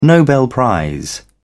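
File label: "nobel prize", British English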